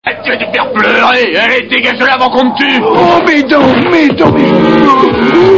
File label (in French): doublage